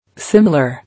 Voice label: "Similar", English